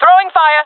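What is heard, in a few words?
throwing fire, jungle female; vo; Counter Strike Model Pack; CS:GO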